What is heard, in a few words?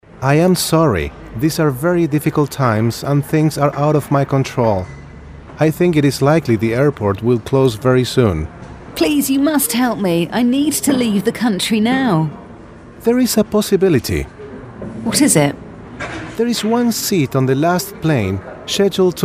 spanish male english latin spanish accent